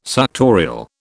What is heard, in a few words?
"suctorial", British